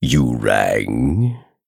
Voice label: Male Human Attack

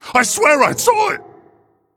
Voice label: Male Mogu Attack

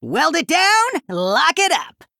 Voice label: Male Gnome

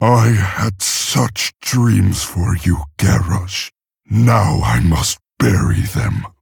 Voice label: Male Orc; Thrall

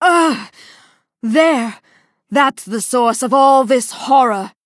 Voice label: Female Night Elf; Elise Starseeker

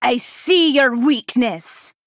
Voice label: Female Troll Play

